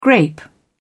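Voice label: "grape", British English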